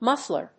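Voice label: "muffler", English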